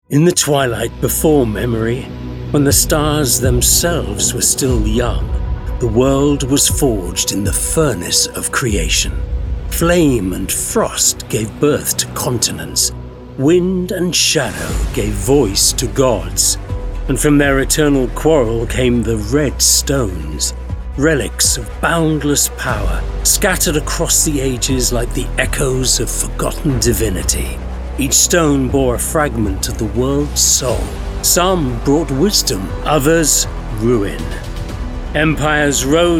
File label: Gaming Narration